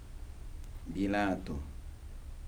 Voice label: "shoes", Kibembe